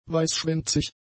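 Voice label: "weißschwänzig", German; adjectives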